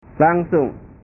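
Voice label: "langsung", Indonesian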